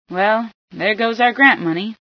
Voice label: no grant, female